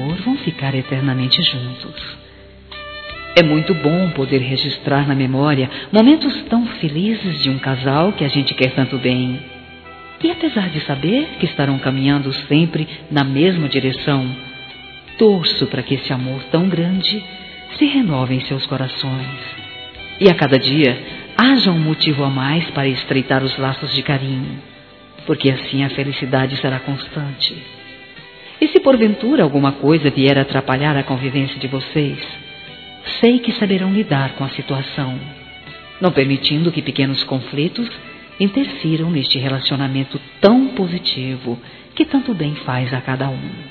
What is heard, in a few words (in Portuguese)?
Aniversário Casamento Geral Feminino; Aniversários